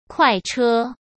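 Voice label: "快车", Mandarin Chinese; chinese flashcards; hsk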